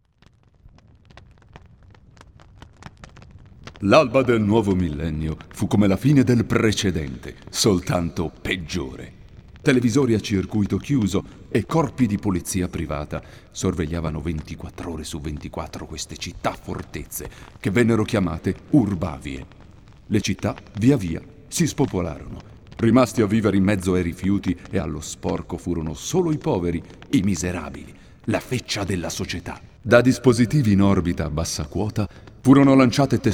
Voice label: Italian Narrative